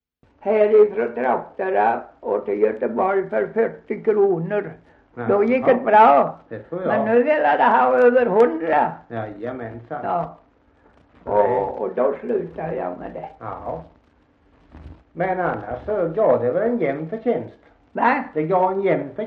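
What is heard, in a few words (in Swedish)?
Gunnarp Halland, dialekter svenska sagor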